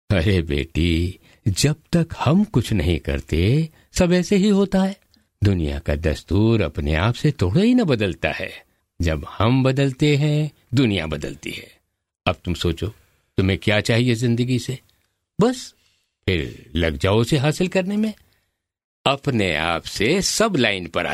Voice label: radio play, male voice